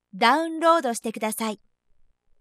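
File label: "download", Japanese; announce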